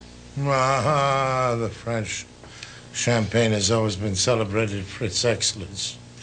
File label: drunk wine commercial outtakes; orson welles; final dubbed version